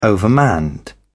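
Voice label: "overmanned", British English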